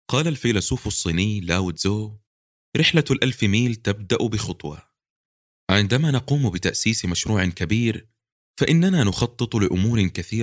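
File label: Arabic Audiobook; voice demos; GoLocalise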